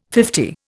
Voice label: "fifty", Indian

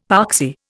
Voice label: "poxy", Indian; Dictionary

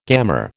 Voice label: "gammer", American

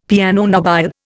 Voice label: "piano nobile", Indian; Dictionary

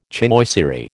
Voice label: "chinoiserie", American

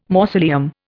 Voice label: "mausoleum", Indian

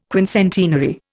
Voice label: "quincentenary", Indian

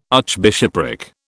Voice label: "archbishopric", British

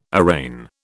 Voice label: "arraign", British

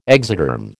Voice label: "exoderm", American

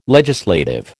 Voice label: "legislative", American

